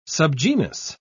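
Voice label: "subgenus", British English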